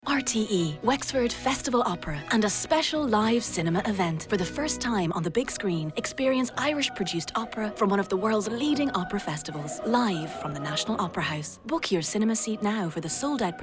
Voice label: VO Promos